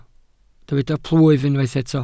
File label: "plwyf", Welsh